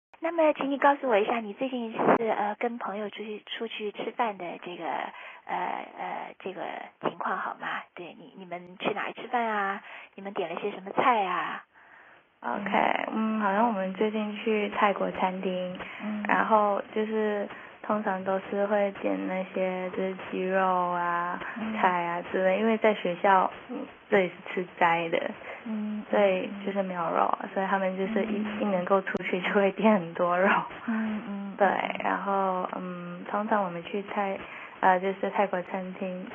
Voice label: chinese trad speaking advanced